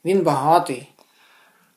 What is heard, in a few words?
dialogue, Ukrainian